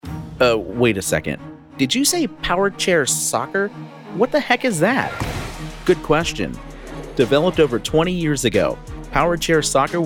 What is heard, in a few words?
Narration